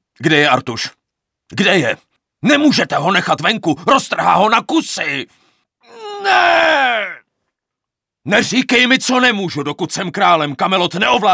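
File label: Male Czech drama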